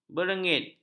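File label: "berenggil", Malay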